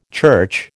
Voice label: "church", American